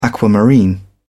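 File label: "aquamarine", British English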